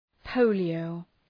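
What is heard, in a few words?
"polio", English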